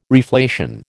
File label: "reflation", American